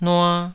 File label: "nua2", taiwanese